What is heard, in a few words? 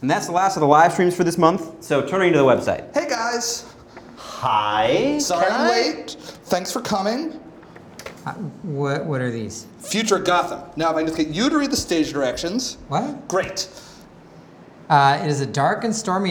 Sound Devices 688 Mix Assist Default